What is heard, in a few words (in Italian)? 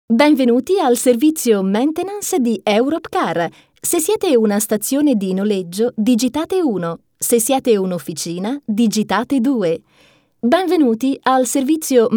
ITALIANO IMPOSTATA, speakers; FEMMINILE; Speaker Madrelingua